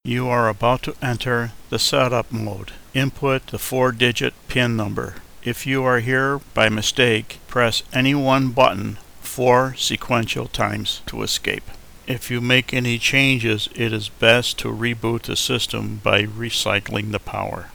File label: unlock, Male English